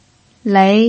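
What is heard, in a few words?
"lei", Mandarin Chinese pinyin, tone 2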